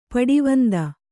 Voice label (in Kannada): "ಪಡವಂದ", kannada